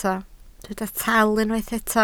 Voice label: "tal", Welsh

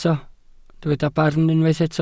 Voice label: "barn", welsh

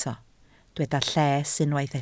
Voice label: "lles", Welsh

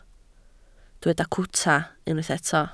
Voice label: "cwta", Welsh

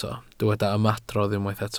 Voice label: "ymadrodd", Welsh

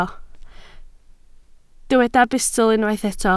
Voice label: "bustl", Welsh

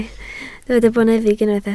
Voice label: "boneddig", Welsh

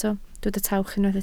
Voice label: "tawch", Welsh

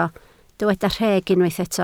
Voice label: "rheg", Welsh

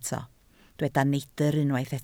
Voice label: "neidr", Welsh

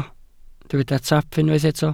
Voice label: "taap", Welsh

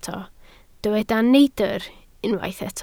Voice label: "neidr", Welsh

